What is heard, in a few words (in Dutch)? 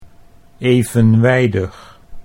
"evenwijdig", Dutch